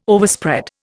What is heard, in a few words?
"Overspread", Indian